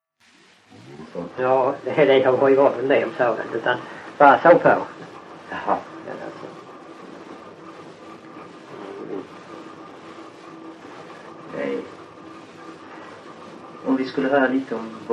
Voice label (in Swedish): Öljehult BLEK, dialekter; Folkminnen; Svenska sagor